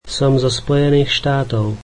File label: slovak Im from the US